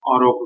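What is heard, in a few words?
"arogh", Persian, male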